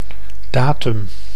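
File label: "datum", Dutch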